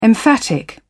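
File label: "emphatic", British English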